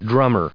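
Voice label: "drummer", English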